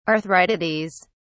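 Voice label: "arthritides", English, British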